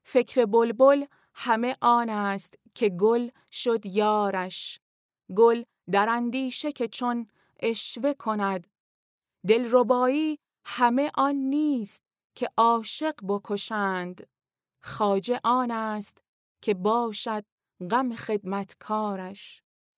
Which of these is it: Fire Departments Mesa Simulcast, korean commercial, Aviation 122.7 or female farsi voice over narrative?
female farsi voice over narrative